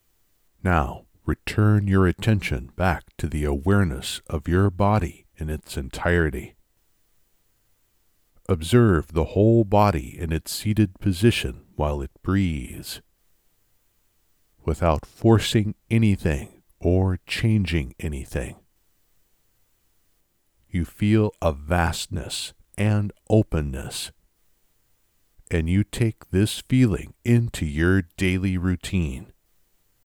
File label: Meditation